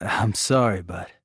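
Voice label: "I'm sorry but", male; rantaro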